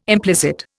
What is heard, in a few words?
"implicit", Indian